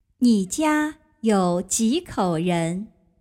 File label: "luxun", Chinese